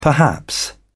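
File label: "perhaps", British English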